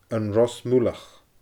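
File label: "An Ros Muileach", Scottish Gaelic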